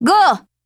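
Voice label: ATTACK Japanese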